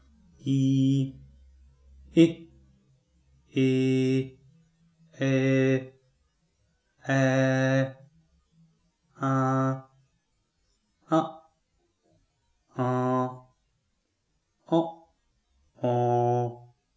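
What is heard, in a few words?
vowel chart, English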